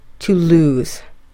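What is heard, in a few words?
"to lose", English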